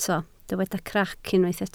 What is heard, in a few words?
"crac", Welsh